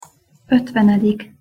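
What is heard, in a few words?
"otvenedik", Hungarian pronunciation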